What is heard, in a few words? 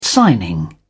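"signing", English, British English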